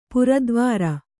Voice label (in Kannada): "ಪುರದ್ವಾರ", Kannada